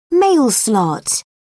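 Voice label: "mail slot", British English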